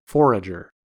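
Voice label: "forager" US English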